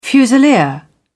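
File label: "fusilier", British English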